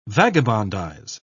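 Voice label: "vagabondize", British English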